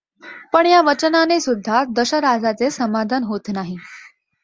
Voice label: Marathi; ai4bharat indicwave2vec models